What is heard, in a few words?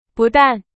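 Chinese; hsk2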